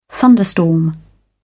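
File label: "thunderstorm", British English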